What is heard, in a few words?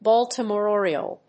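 "Baltimore oriole", English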